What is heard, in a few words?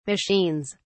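"machines", British English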